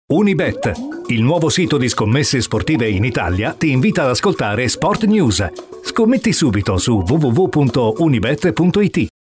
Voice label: spot; cnr unibet